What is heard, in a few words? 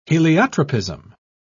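"heliotropism", British English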